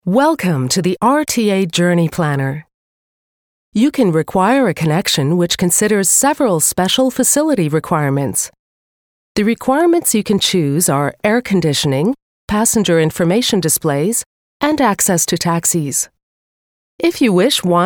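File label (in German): Canadian telefon, englisch; sprecher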